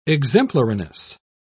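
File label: "exemplariness", British English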